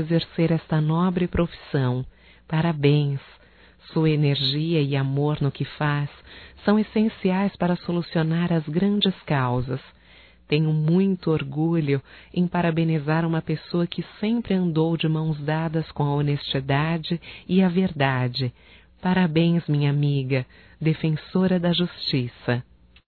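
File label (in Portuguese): Homenagem a advogada, voz feminina; Telemensagens; HOMENAGENS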